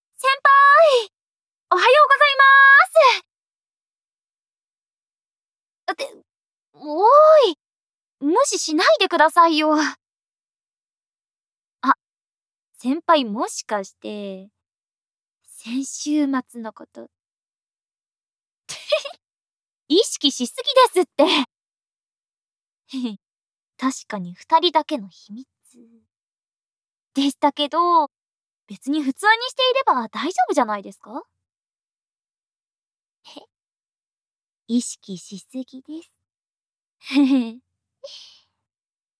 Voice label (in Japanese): ボイスのみ, ASMR; SEなし